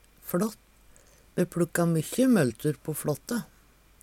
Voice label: fLått, Numedalsmål; LangebufLått på Hardangervidde; FLåttkjønn ved Langesjøen på Hardangervidde

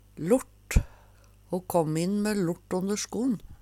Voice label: lort, Numedalsmål; Substantiv hankjønn

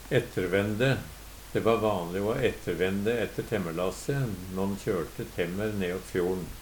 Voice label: "ettervende", Numedalsmål

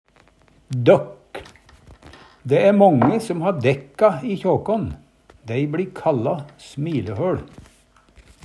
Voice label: "dokk", Numedalsmål

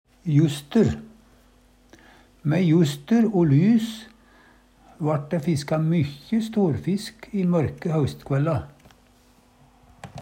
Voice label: juster, Numedalsmål

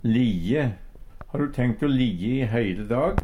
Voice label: lijje, Numedalsmål; Verb

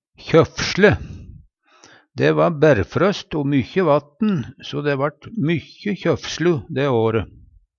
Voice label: kjofsle, Numedalsmål

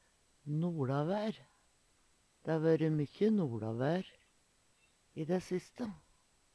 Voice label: "noLavær", Numedalsmål; Substantiv inkjekjønn; Veggli

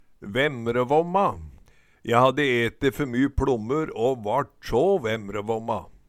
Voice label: "vemrevomma", Numedalsmål; Je hadde eti fer my pLommur å vart så vemrevomma

